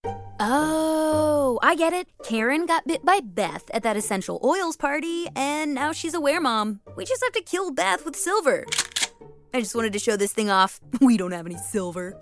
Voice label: Animation